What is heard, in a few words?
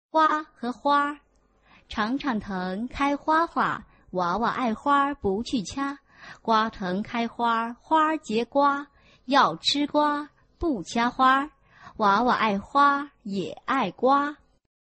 chinese tongue twister